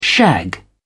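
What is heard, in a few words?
"shag", British English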